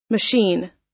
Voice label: "machine", us